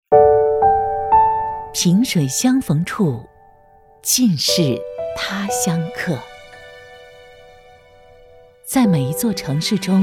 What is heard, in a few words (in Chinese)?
女 走心旁白